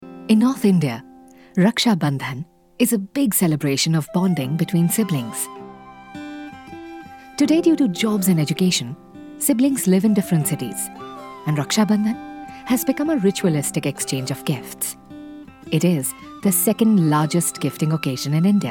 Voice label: Voice Over Artist Female Hindi, female Voice with attraction; Amazon